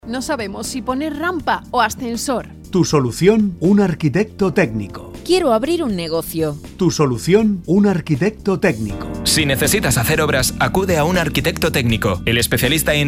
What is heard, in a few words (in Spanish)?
cuña publicitaria; Diciembre 2020